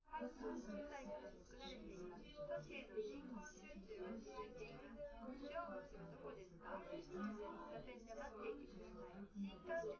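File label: female4 live recording 250ms 1m, underdetermined; SiSEC11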